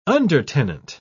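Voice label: "undertenant", British English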